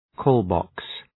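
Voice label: "call box", English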